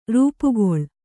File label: "ರೂಪುಗೊಳ್ಳು", Kannada